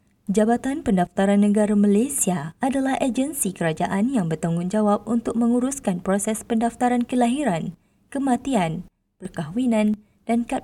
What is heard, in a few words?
Malay, voiceover